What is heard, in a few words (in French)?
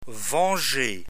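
"venger", French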